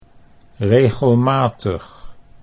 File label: "regelmatig", Dutch